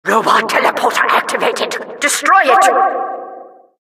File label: announcer tele activated; mvm; eng; source engine; tf